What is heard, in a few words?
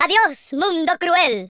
"oh dear", Spanish; speech